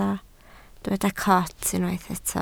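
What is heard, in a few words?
"coot" Welsh, spoken word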